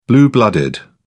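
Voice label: "blue blooded", British English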